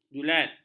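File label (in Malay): "julat", Malay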